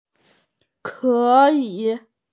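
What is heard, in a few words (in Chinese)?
"ke3yi3", Mandarin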